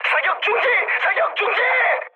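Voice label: Korean player friendly fire mood high; War Thunder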